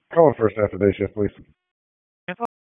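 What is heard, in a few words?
Police District Dispatch, p25; wake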